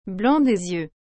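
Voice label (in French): "blanc des yeux", French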